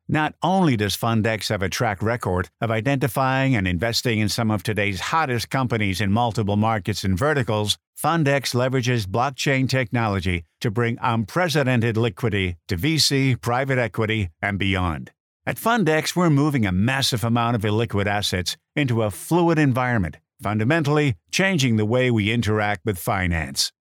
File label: male canadian voice over upbeat